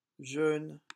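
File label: "jeune", French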